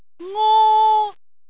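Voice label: "ngo1", Cantonese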